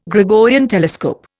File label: "Gregorian telescope", Indian